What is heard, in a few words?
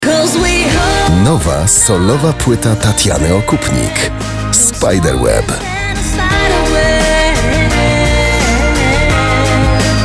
Male VO Commercial